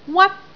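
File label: "wat1", Cantonese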